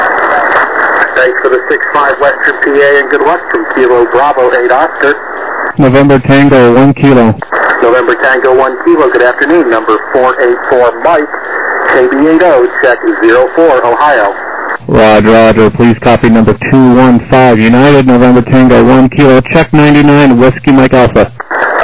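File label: lower sideband 7MHz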